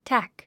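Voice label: "tack", English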